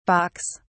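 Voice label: "balks", British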